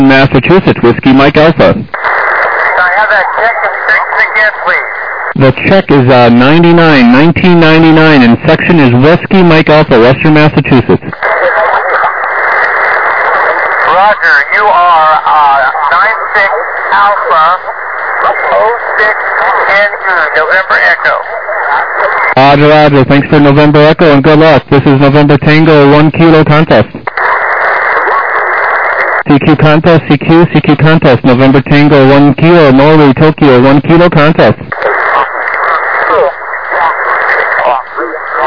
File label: SSB USB 14MHz